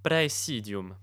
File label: garrison, romans male voice